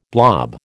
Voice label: "blob", American